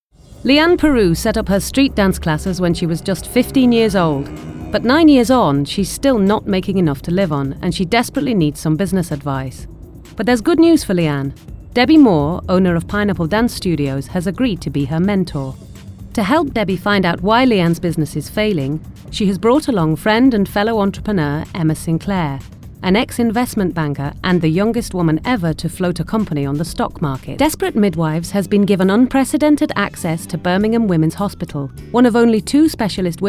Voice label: narr